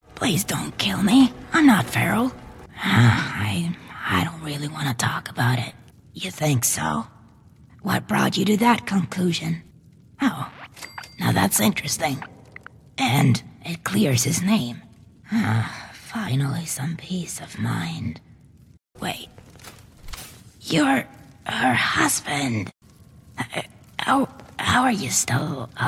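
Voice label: Estonian English female gaming; accent of estonia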